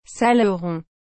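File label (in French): "saleron", French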